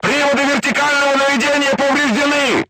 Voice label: voice message vertical aiming drive damaged, crew tanks russian